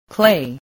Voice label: "clay", voice